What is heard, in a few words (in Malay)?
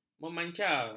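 "memancar", Malay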